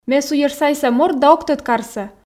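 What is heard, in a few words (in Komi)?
ме суйӧрсайса морт да ог тӧд карсӧ, Komi, female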